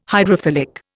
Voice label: "hydrophilic", Indian